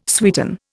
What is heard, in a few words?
"sweeten", Indian